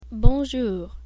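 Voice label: good morning, French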